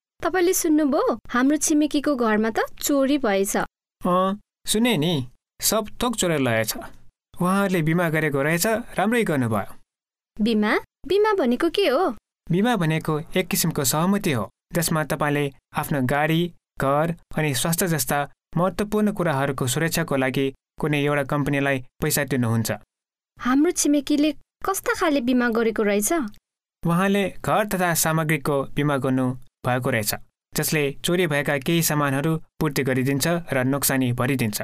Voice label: insurance nepalese